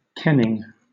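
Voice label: "kenning", British English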